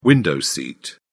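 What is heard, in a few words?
"window seat", British English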